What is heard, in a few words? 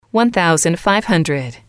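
"one thousand five hundred", English, female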